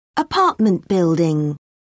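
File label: "apartment building", British English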